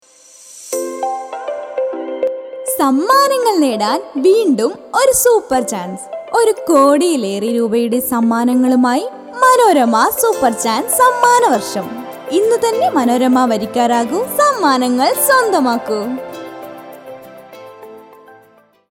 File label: COMMERCIAL